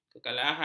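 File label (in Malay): "kekalahan", Malay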